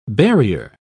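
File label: "burier", British English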